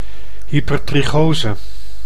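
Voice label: "hypertrichose", Dutch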